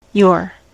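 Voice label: "you're", US English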